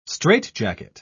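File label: "strait-jacket", British English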